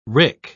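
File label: "wrick", English, British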